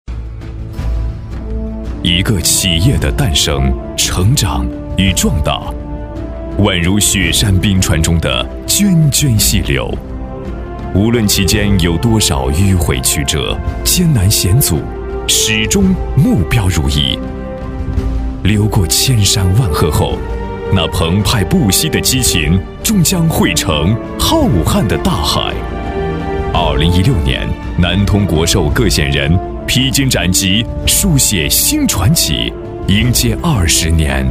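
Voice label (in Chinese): 高端男大气浑厚企业宣传片; 南通国寿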